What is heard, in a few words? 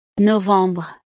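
"novembre", French; los meses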